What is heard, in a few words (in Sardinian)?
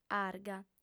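"arga", female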